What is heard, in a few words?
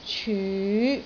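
"cyu5", Cantonese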